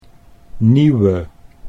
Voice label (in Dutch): "nieuwe", Dutch